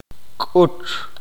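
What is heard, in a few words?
"halcón", Q'eqchi'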